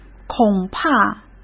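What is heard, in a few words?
"恐怕" Mandarin Chinese; chinese flashcards; hsk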